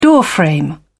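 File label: "doorframe", British English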